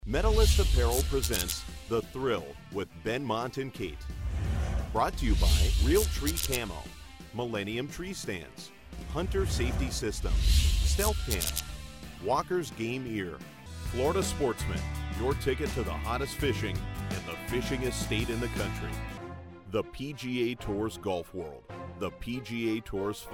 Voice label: Promos